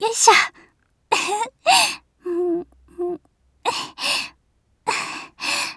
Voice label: lobby, Voice Line, JPN; Eternal Return; Tia